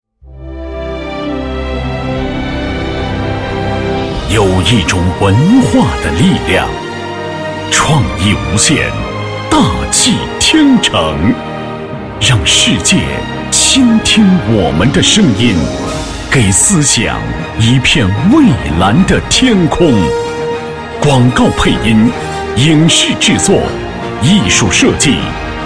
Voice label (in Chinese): 男 专题 大气恢弘; 央视大气专题; 赛克文化传媒